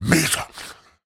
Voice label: Cannibal Male Taunt; Trunk Act One Monster